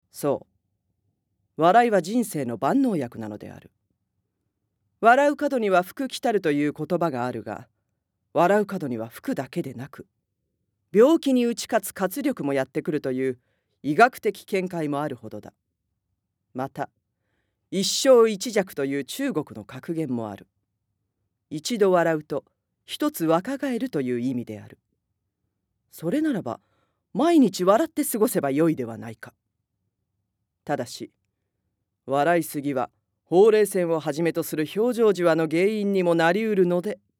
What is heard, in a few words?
na, female voice